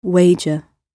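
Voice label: "wager", British English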